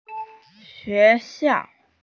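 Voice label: "xue2xiao4", Mandarin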